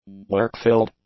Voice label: "work-filled", english; adjectives